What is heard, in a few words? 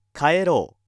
male